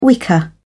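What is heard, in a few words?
"wicker", British English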